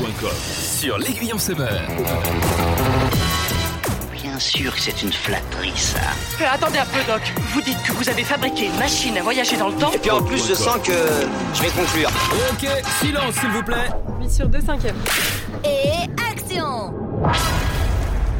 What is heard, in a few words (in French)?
Spot Actu Cine; Aiguillon Summer Repik